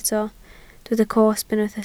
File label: "cosb", Welsh